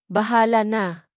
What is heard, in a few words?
"bahala na", tagalog; tagalog phrases